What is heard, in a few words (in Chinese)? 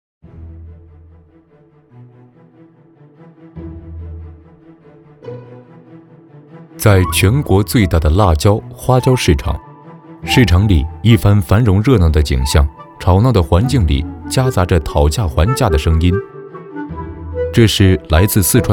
男 纪录片 重庆火锅